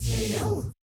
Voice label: "Feel The Vibe" robot; Yamaha DJX-2B